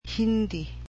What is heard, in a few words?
"Hindi", cebuano